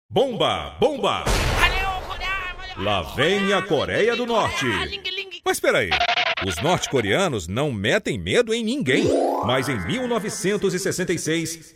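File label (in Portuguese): Masculino, Português - Brasileiro, Chamada; Chamada BrasilxCoréia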